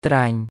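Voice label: "ត្រាញ់", Khmer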